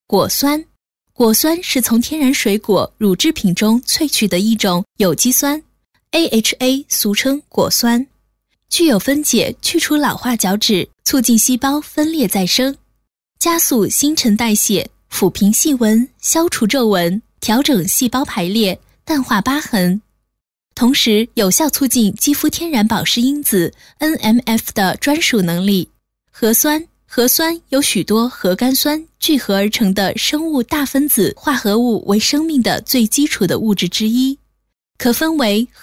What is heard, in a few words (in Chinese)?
女 专题 化妆品成分解说词, 国语女声配音